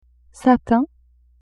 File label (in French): "satin", French; vetements